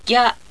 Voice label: "gya", Japanese